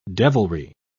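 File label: "devilry", British English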